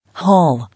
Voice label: "Hall", English

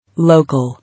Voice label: "Local", English

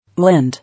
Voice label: "Lend", English

